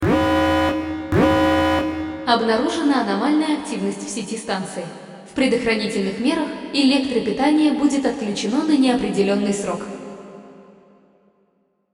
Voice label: power off, Announcements; OldThink